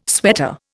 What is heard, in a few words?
"sweater", Indian